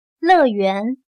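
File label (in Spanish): "乐园", chino mandarin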